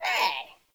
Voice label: Sprout Vocal Grumpy; Characters; Friendly; Sproutfolk; Fey Hub Sprout